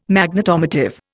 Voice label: "Magnetomotive", Indian

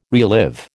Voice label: "relive", American

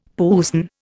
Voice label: "puisne", Indian; Dictionary

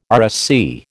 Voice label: "RSC", American

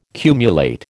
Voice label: "cumulate", American

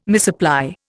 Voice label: "misapply", Indian

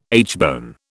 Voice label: "aitchbone", British

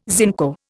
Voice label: "zinco", Indian

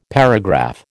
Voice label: "paragraph", American

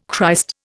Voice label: "Christ", Indian

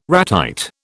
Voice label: "ratite", British

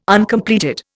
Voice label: "Uncompleted", Indian

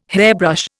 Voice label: "hairbrush", Indian

